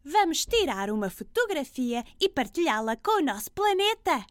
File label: Portuguese EU